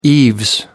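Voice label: "eaves", British English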